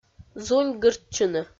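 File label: "зуньгыртчыны", Komi, female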